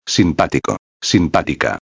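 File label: "simpatico a", Spanish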